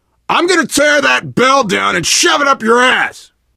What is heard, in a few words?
world small town npc bellman, player survivor voice biker